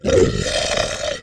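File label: voice delay; Blood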